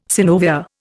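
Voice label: "synovia", Indian English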